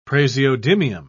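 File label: "praseodymium", English, British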